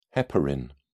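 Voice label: "heparin", British English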